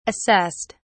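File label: "assessed", British English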